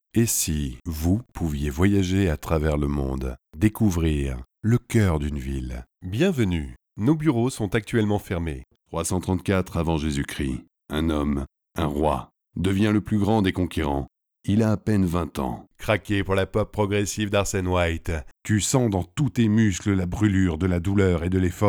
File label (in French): voix off